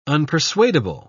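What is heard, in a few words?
"unpersuadable", British English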